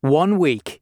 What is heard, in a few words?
"1 Week", male announcement